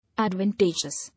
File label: "Advantageous", English